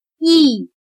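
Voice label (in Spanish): "屹", chino mandarin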